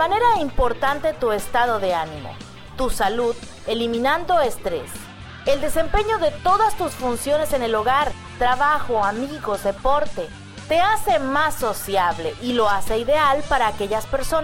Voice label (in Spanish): SPOT Yoga de la Risa